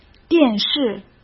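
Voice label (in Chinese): "电视", Mandarin; chinese-flashcards; hsk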